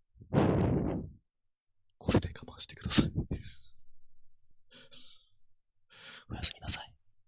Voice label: asmr oyasumi; kagami hayato